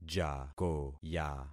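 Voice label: "jakoya", concatenated syllables; Affixing experiment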